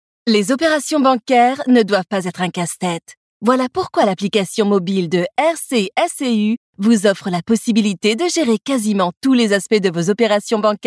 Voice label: Professional